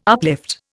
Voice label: "uplift", Indian